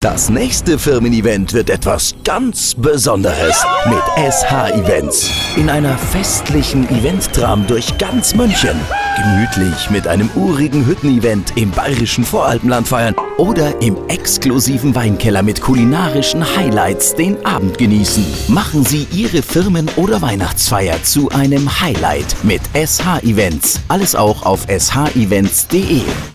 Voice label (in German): Werbespot Firmenfeier; SH EVENTS